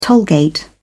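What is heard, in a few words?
"tollgate", British English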